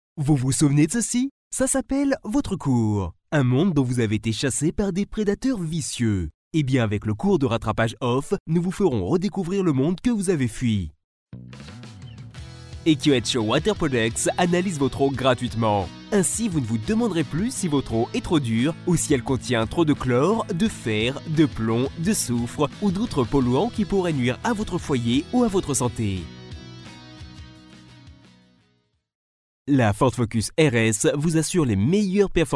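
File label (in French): French, male, commercial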